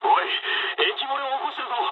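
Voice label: damaged water mood low, speech japanese; War Thunder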